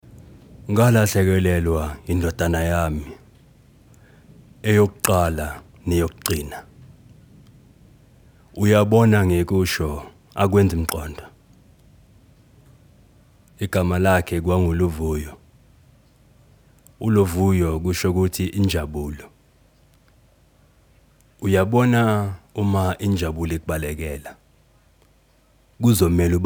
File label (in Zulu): iSiZulu